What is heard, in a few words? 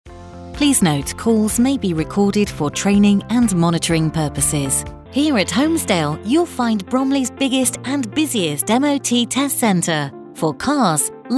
Telephone Hold IVR